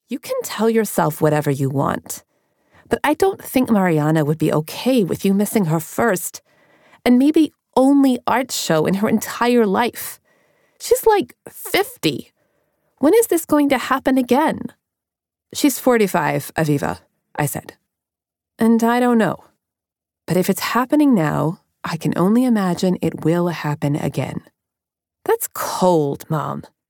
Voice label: New York tween F F dialogue